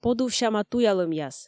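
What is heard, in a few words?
"подувсяма туялӧмъяс", Komi, female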